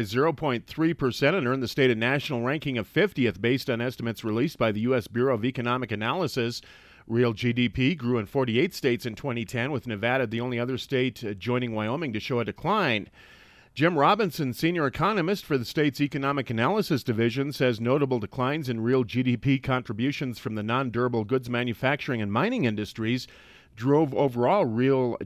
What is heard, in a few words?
radio news; Wyoming